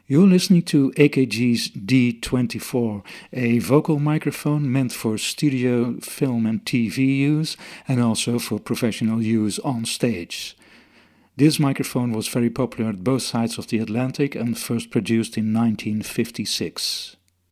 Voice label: AKG D24 sound UK